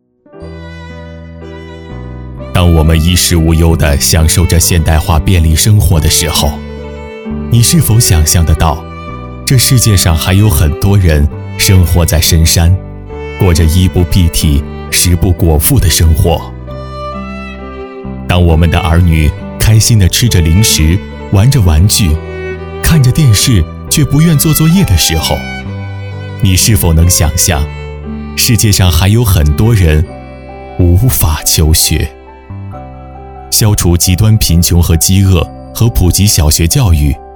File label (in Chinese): 男国 广告 公益 抒情; 第五次进大凉山